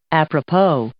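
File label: "apropos", English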